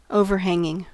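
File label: "overhanging", American English; pronunciations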